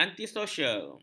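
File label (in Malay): "antisosial", Malay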